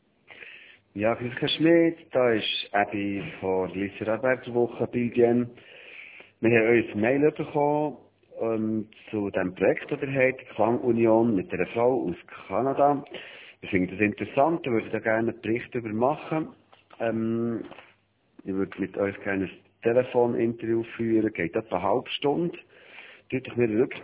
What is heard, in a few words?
voicemail